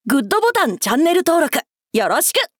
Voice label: 「GOODボタンチャンネル登録よろしく！」, 男の子 王道系少年主人公

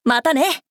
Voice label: 「またね」, 男の子 王道系少年主人公; 男の子│王道系少年主人公│あいさつボイス

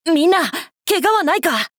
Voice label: 「みんな、怪我はないか？」, 男の子 王道系少年主人公 戦闘ボイス

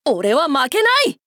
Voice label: 「俺は負けない！」, 男の子 王道系少年主人公 戦闘ボイス

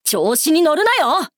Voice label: 「調子に乗るなよ！」, 男の子 王道系少年主人公 戦闘ボイス

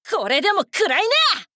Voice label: 「これでも食らいな！」, 女の子 オラオラ系少女 戦闘ボイス

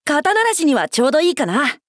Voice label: 「肩慣らしにはちょうどいいかな」, 男の子 王道系少年主人公 戦闘ボイス; 戦闘開始・終了

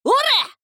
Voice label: 「おらっ！」, 女の子 オラオラ系少女 戦闘ボイス

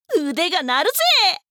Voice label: 「腕が鳴るぜ」, 女の子 オラオラ系少女 戦闘ボイス; 戦闘開始・終了

